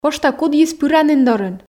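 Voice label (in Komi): пошта кудйыс пыранін дорын, Komi, female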